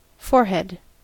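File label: "forehead", US English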